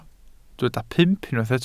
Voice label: "pump", Welsh

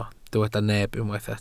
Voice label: "neb", Welsh

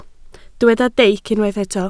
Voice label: "deic", Welsh

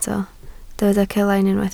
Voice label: "celain", Welsh

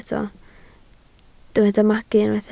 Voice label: "magu", Welsh

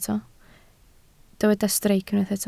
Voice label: "streic", Welsh

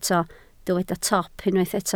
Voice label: "top", welsh

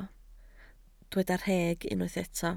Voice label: "rheg", Welsh

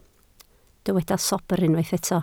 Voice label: "sobr", Welsh

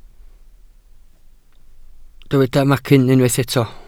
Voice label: "macyn", Welsh

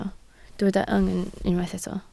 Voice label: "yng", Welsh

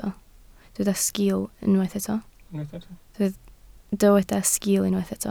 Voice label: "sgiil", Welsh

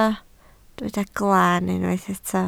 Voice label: "glan", Welsh

